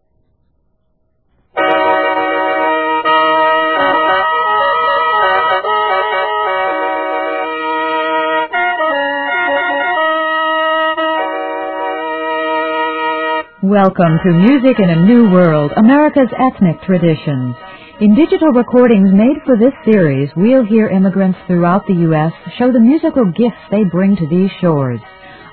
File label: Basque